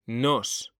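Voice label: "nos", Spanish; mekanta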